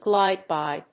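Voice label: "glide by", English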